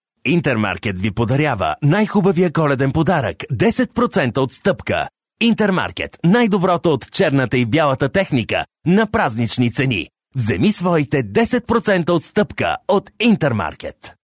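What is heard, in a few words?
Bulgarian, male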